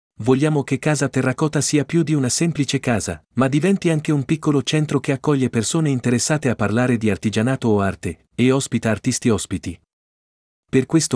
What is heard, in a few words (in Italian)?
Italiano